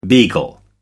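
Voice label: "beagle", English, British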